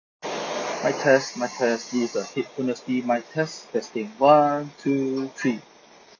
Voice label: EarFun Air Noisy